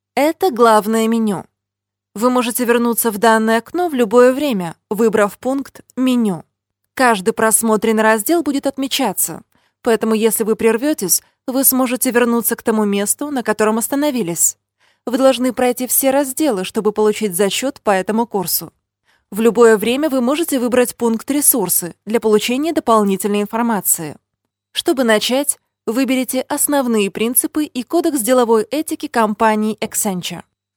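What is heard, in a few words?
Russian elearning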